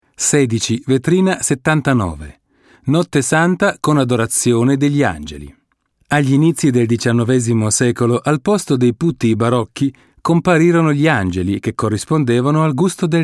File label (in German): italienisch audioguide; sprecher